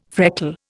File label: "freckle", Indian